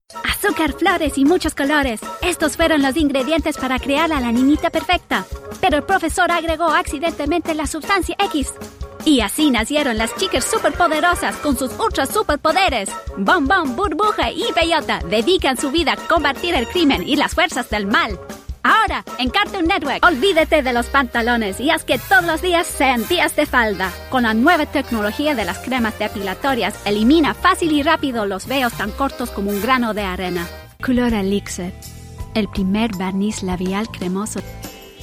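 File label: Commercial Spanish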